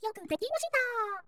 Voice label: cheer, voice commands; svencoop; Miku